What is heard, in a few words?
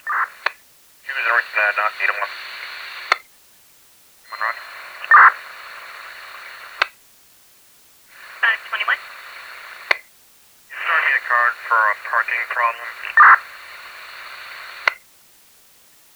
Police Radio